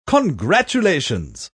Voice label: "Congratulations", Male; Talking; Voice Box